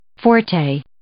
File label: "forte", English